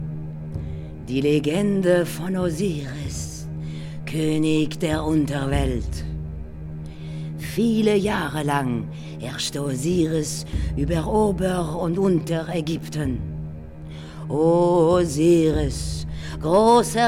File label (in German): hoerspiel; LOFT VOICES